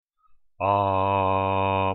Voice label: modal voice